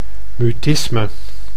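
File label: "mutisme", Dutch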